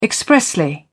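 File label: "expressly", British English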